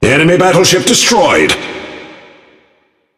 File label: Speech Destroy Enemy Battleship, EN GB; World Of Warships